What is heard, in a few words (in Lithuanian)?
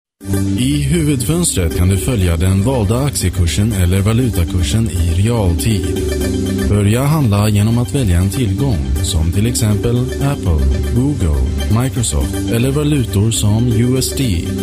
(VYR) Svedu balsas; vyras